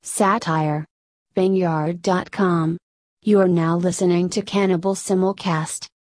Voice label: electro voice